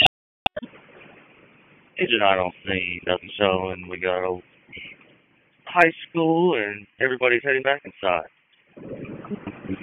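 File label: FIRE Operations, p25; FIRE OPS 2; wake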